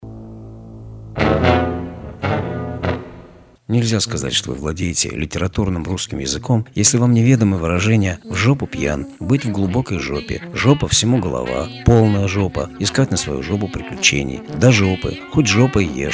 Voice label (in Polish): Reklama